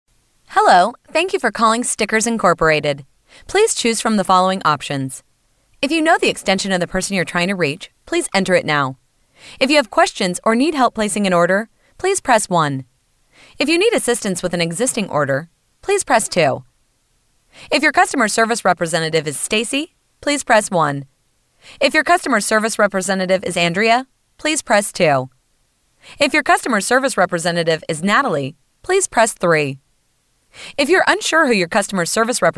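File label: female English American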